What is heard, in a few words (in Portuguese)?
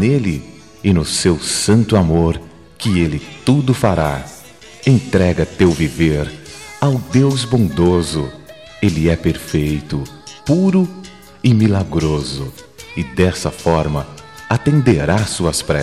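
Evangélica Aniversário de Amizade, male, telemensagens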